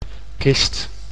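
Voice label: "kist", Dutch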